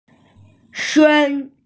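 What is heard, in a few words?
"suan1", Mandarin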